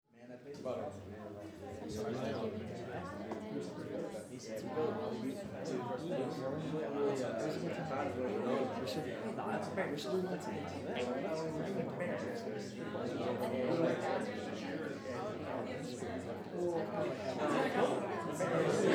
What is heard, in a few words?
Crowd, Small Group Talking; Several Conversations Happening Simultaneously , About 15 People , Bar Or Lobby Or Party